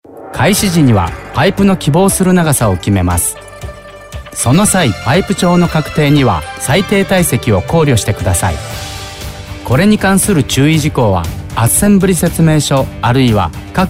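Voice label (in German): telefonansagen sprecher Japanese; Native Speaker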